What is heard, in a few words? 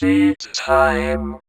Vocoder Words; Vengeance Samples